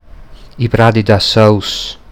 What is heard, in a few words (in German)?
Pradi da Sòus Mundart